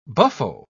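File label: "buffo", British English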